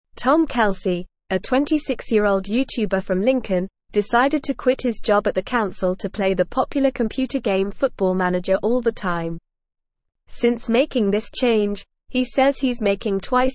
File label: English Upper Intermediate NORMAL Reading; YouTuber Turns Passion for Football Manager into; English Newsroom